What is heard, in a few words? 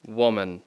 "woman", English